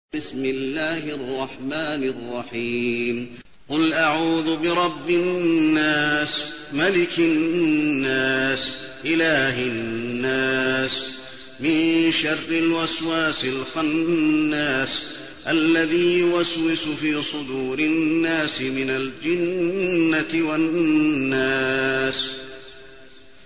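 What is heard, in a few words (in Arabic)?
الناس, تلاوة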